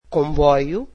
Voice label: "train", Portuguese